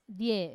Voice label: "die", wamesa; low tide

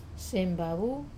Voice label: "vavou", go home, wamesa

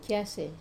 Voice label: "kase", to shave, wamesa

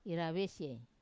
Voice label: "iravesie", Wamesa; to separate

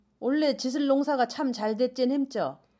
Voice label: potato, jeju

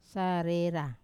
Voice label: "sarera", Wamesa; fishing pole

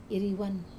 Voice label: "riwan", to change, wamesa

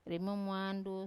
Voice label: "rime muandu", seven, wamesa

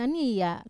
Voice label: "ania", to crawl, wamesa

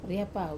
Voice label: "ra pavi", wamesa; to slither

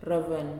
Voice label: "Woman", Nalik

